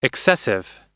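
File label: "excessive", US English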